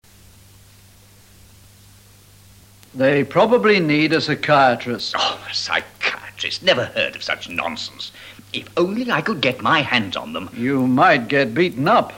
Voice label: dialog